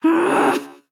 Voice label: Female Mecha Gnome